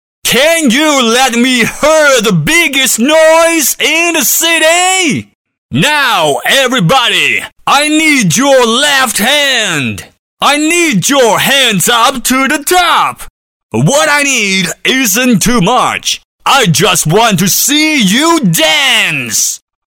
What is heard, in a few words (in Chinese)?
英男 英文喊麦 激情力量奔放